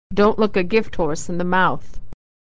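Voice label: gift horse, sayings, American English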